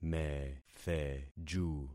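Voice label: "metheju", concatenated syllables; Affixing experiment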